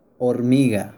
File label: Ant spanish